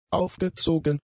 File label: "aufgezogen", German; adjectives